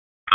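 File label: Fire Department Alert, p25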